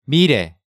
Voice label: "Mirae", Hangeul sounds; DigitalSoju